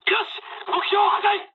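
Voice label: Japanese bomb success mood high; War Thunder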